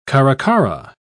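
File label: "caracara", English, British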